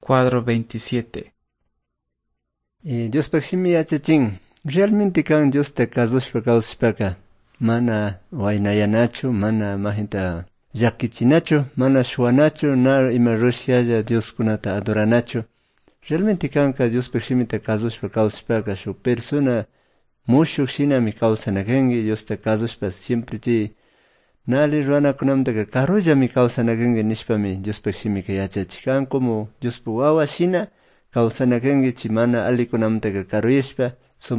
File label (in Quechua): good news quichua cayambe